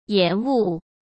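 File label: "延误", Mandarin Chinese; hsk; chinese-flashcards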